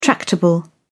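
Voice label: "tractable", British English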